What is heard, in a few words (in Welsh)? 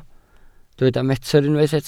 "metr", Welsh